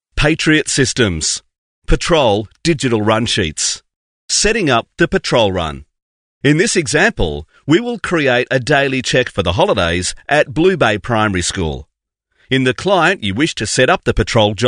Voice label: English AU

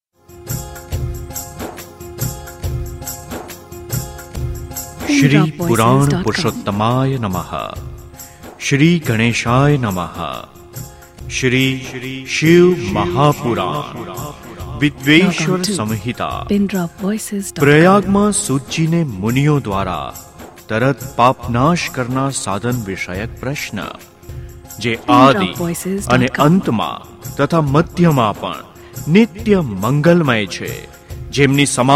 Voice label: Gujarati

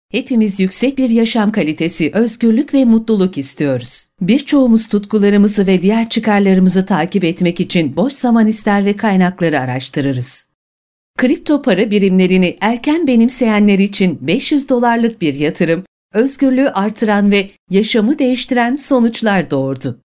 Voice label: Turkish

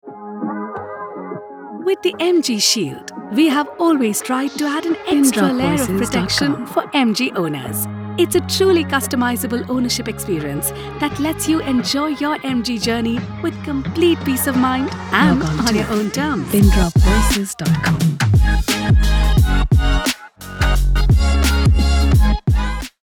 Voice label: English

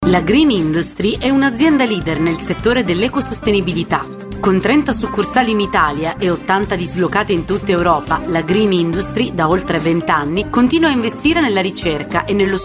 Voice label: Italian

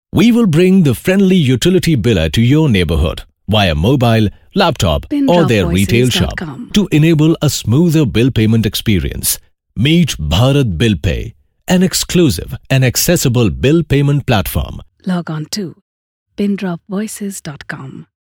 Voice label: English

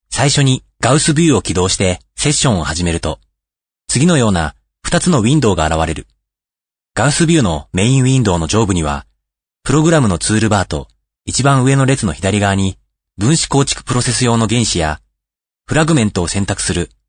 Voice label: Japanese

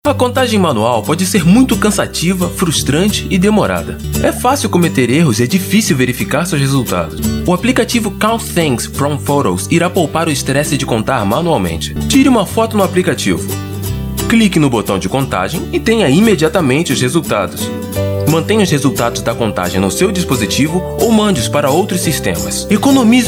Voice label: Portuguese

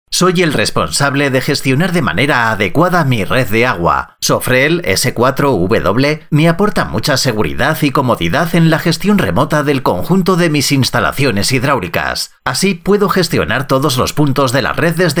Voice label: Spanish

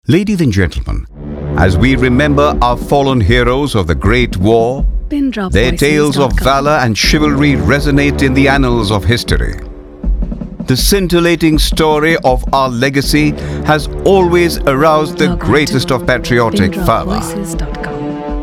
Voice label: English

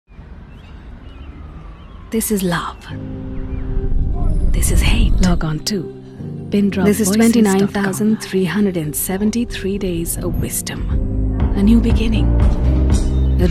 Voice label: English

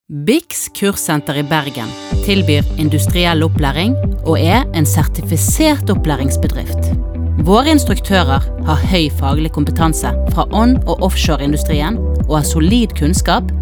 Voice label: Norwegian

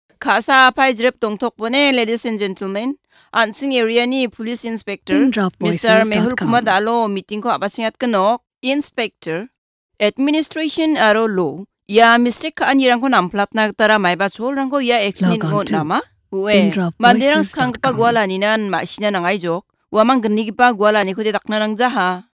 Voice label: Garo

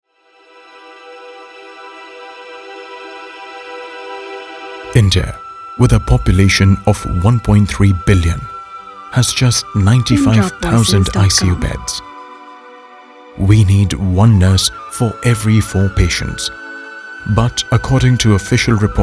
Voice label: English

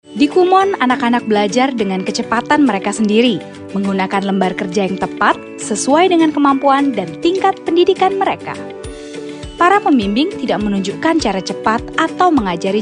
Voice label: Indonesian Bahasa

